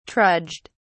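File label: "trudged", British English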